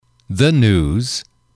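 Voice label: "the news", English, male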